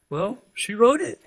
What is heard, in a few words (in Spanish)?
Voice Acting es M